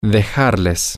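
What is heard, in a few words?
to leave something for you them, Spanish, male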